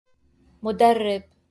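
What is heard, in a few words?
"مدرب" Arabic; trainer, coach (masc.)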